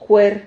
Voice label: "juer", Silabas

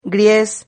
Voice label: "gries", Silabas

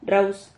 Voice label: "rrahus", silabas

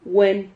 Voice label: "huen", Silabas

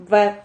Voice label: "vad", Silabas

